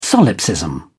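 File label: "solipsism", English, British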